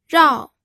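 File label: "rao", Mandarin Chinese, fourth tone; pinyin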